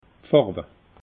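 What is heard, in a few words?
"farwe", Alsatian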